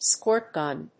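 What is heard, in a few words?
"squirt gun", English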